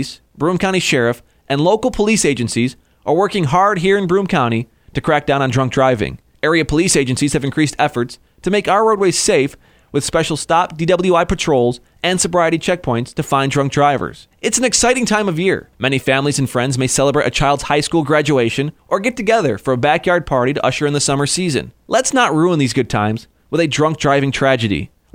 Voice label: Plane Crash Radio